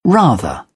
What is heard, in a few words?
"rather", British English